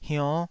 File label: "hionn5", taiwanese syllable